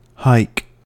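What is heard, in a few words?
"hike", American English; pronunciations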